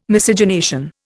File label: "Miscegenation", Indian